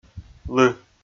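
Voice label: "лы" Komi, male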